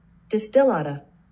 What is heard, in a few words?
"Distillata", pronunciation